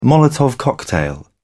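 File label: "molotov cocktail", British English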